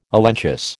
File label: "elenchus", American